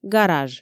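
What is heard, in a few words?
"гараж", Komi, female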